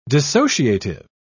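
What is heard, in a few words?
"dissociative", British English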